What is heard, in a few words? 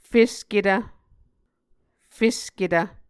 "fesketv", muscogee